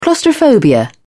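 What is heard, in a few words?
"claustrophobia", English, uk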